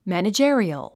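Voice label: "managerial", English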